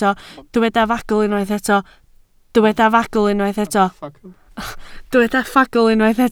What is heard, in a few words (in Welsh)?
"ffagl", Welsh